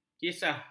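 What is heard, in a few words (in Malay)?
"kisah", Malay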